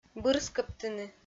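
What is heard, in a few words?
"бырскӧбтыны", Komi-Zyrian, female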